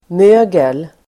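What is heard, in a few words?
"mögel", Swedish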